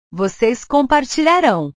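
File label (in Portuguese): "vocês compartilharão", Portuguese; portugues/verbos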